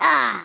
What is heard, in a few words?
"Nooo", Africaan